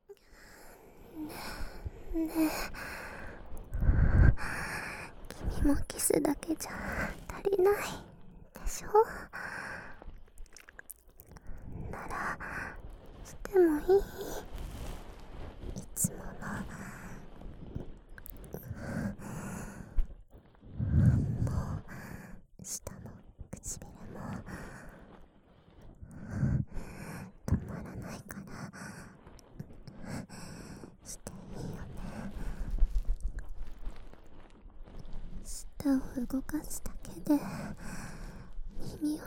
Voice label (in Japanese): 耳舐め+着手マン, ASMR